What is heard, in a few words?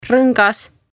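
"Rongas" Estonian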